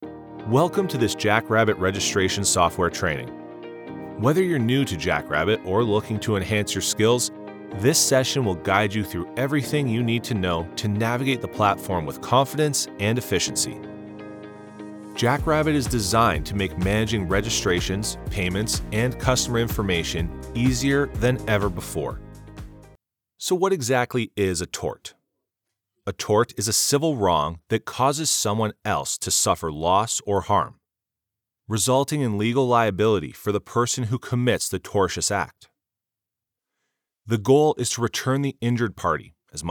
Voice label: eLearning, corporate voice over